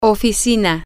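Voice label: office, Spanish, female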